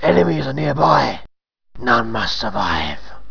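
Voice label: male human; voices for the new PC Roleplaying game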